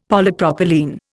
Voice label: "Polypropylene", Indian; Dictionary